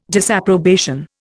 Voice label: "disapprobation", Indian

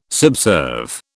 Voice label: "subserve", British

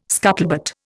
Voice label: "scuttlebutt", Indian

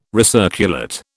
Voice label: "recirculate", British

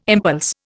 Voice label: "impulse", Indian

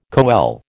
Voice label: "coele", American

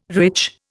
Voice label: "rich", Indian

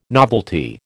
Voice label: "novelty", American

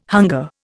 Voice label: "hunger", Indian

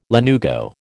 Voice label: "lanugo", American

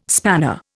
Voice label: "spanner", Indian

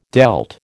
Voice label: "dealt", American

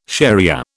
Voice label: "shariah", British

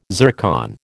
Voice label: "zircon", American

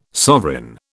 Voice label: "sovereign", British

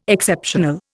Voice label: "Exceptional", Indian

